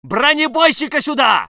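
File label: voice soviet infantry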